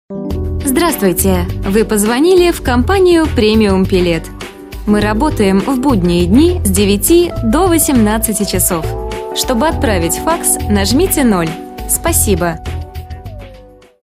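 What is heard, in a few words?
Russian, female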